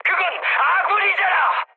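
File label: Korean player friendly fire mood high; War Thunder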